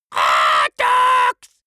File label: dwarf scream hot dogs